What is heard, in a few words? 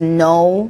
"no" female; Voice; expletives